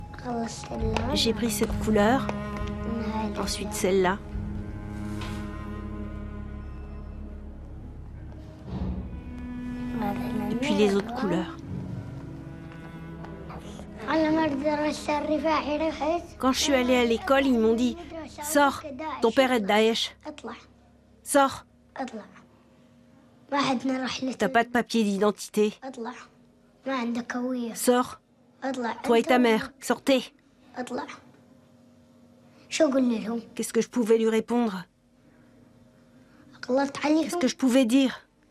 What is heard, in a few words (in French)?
enfants de Daech garçon 6 ans